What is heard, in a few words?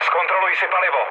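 tank damaged, Czech, mood low; speech; War Thunder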